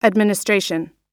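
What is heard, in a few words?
"administration", US English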